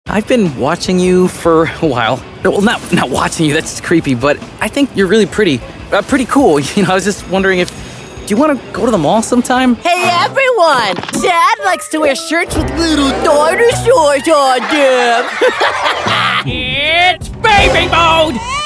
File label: animation men, voices men